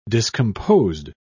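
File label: "discomposed", English, British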